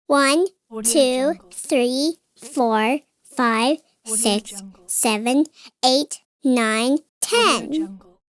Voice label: girl child counting to ten; شمردن اعداد انگلیسی; human sounds